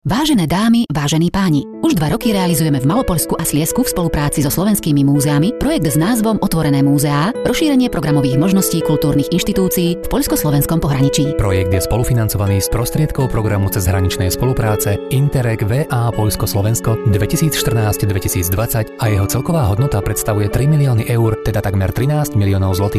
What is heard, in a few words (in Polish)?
spot radiowy; słowacki muzea otwarte